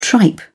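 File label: "tripe", British English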